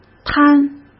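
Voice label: "贪", Mandarin Chinese; chinese-flashcards; hsk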